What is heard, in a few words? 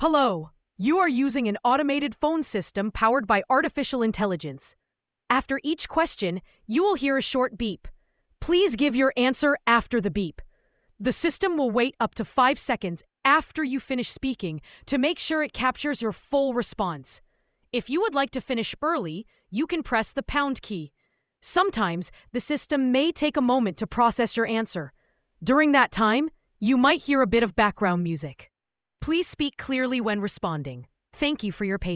welcome to ivr